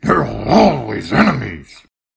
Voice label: npc orc armorsmith

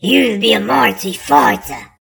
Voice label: npc orc weaponsmith

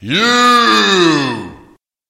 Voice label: monster giant

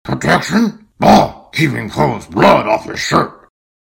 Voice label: npc orc armorsmith

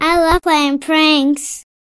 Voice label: human girl

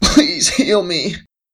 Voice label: npc sick man

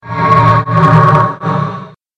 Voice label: monster djinn

